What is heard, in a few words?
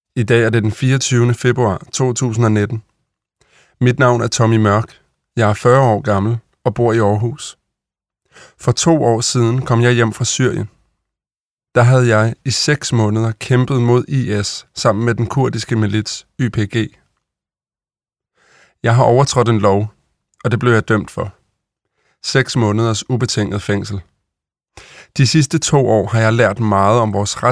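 Danish Audiobook Male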